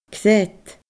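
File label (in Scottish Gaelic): "cnead", Scottish Gaelic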